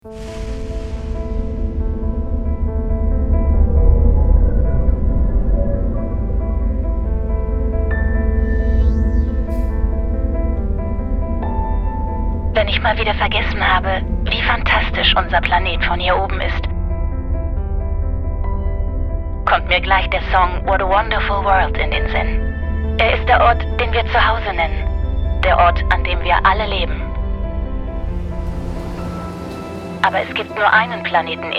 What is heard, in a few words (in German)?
Doku; Der Planet; Austronautin